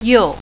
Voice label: "right", Mandarin